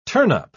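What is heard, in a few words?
"turnup", British English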